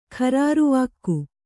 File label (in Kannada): "ಖರಾರುವಾಕ್ಕು", Kannada